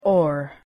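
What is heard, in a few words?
"or", English pronunciation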